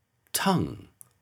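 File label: "tongue", English pronunciation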